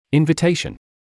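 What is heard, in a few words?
"invitation", English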